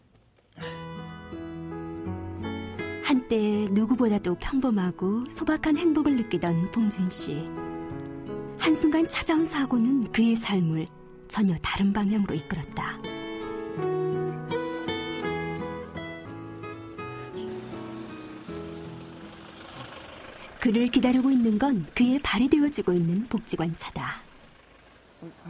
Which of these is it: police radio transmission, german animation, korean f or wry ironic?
korean f